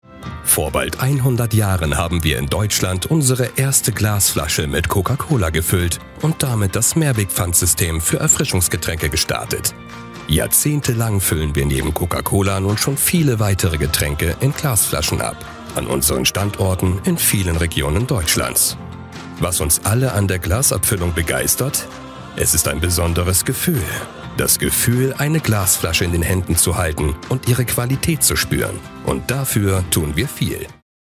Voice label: Imagefilm; Coca-Cola

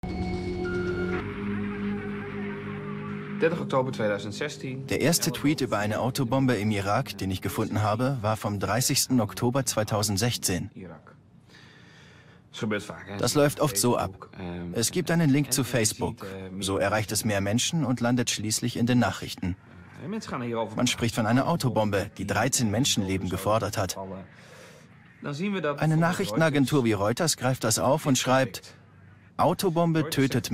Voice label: DEUTSCH MALE Voice Over Dokume; Arte; Bellingcat; Truth in a Post Truth World; LOFT VOICES

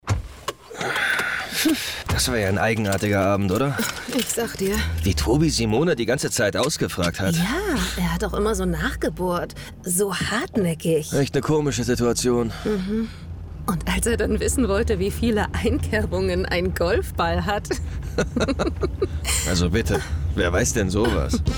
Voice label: Werbung; Funk; Wissensshow

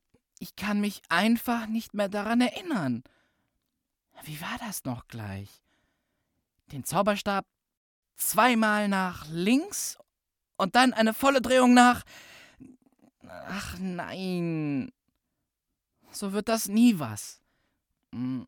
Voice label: Trickstimme Zauberlehrling; der Hörverlag / hr2 Kultur

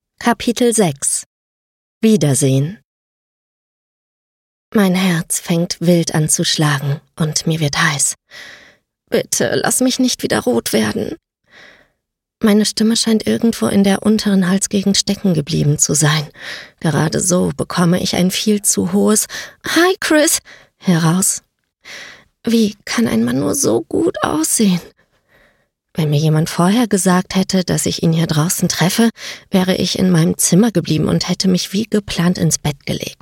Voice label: Hoerbuch Romance, Audiobook (Hörbuch), German; voice; Hörbuch I Romance I Auch Workaholics brauchen Liebe

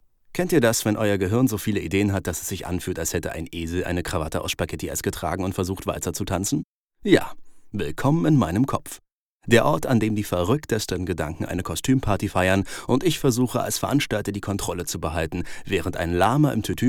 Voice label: Erzaehlung Voice Over

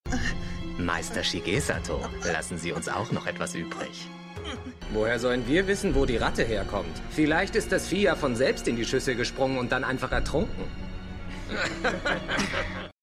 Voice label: Anime bedrohlich; Ooku Inner Chambers

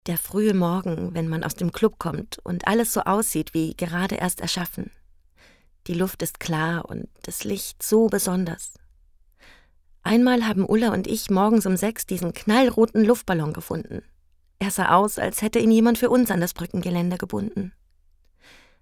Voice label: Hörspiel; Schwarzlicht

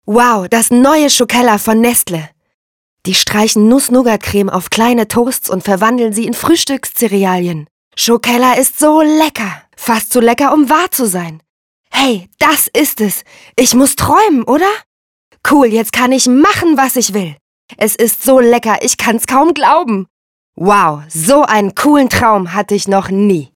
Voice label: Werblich fuer Kinder, Commercial (Werbung), German; Schokella 2019

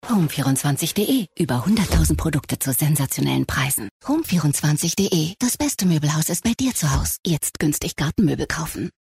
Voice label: deutsch female werbung off

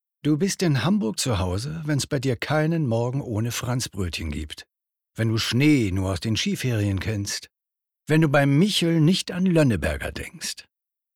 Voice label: Webung STROM

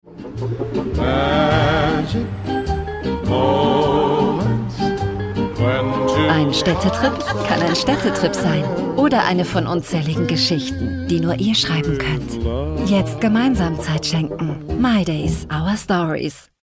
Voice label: WERBUNG TV Spot National natuerlich zugewandt; Mydays; LOFT VOICES

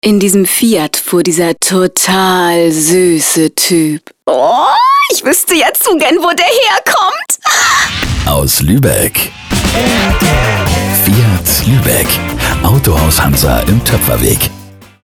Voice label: fiatwerbung; LOFT VOICES

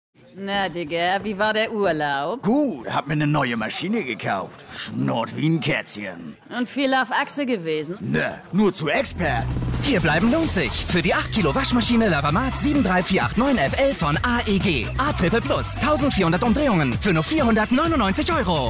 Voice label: werbung szene; expert

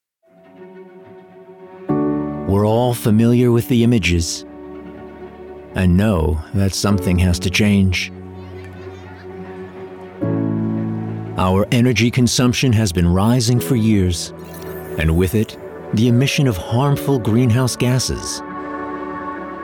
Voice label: ENGLISCH

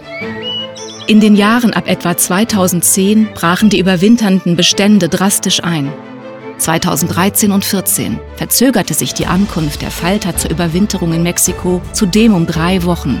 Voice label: Dokumentation Schmetterling

